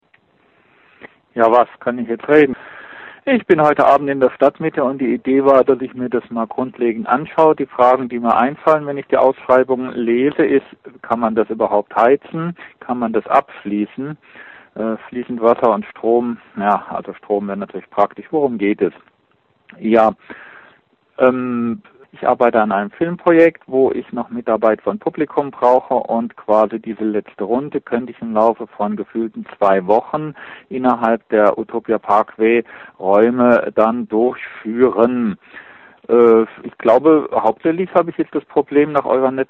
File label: Voicemail